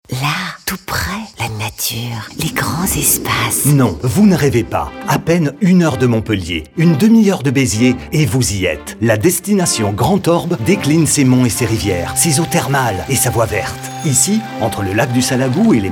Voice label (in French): spot radio; grand orb; fb herault